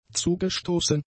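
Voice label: "zugestoßen", German; adjectives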